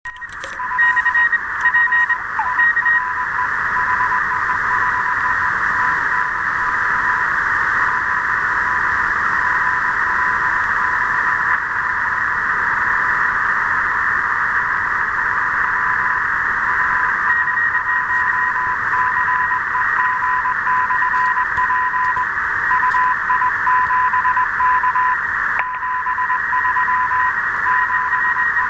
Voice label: QSO